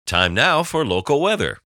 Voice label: Announcer